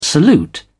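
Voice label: "salute", British English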